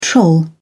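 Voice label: "troll", British English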